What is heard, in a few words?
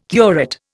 "curate", Indian